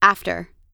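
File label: "after", American English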